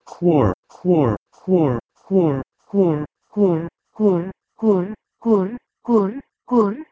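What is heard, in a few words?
Proto-Indo-European kwor to Lithuanian kur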